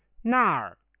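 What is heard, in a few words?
"nar4" Chinese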